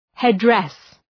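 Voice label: "headdress", English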